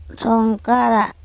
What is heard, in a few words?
"THongkaral" my nail, andamanese